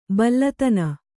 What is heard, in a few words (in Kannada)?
"ಬಲ್ಲತನ", Kannada